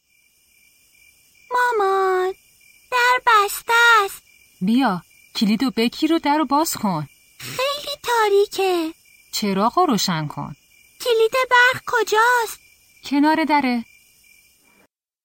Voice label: Dialogue lesson Farsi